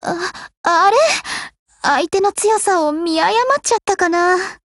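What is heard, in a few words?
BREAK Japanese, Voice; GF Alarm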